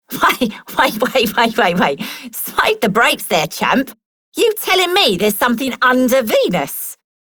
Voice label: Female Worker, en; Dialog; Warframe; Solaris Venus